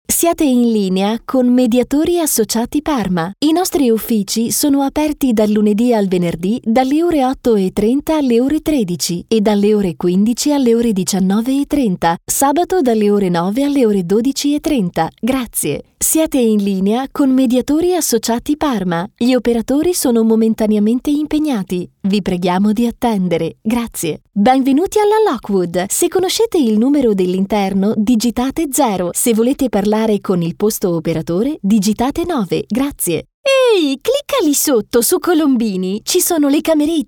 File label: ITALIANO, speakers